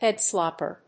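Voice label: "headslapper", English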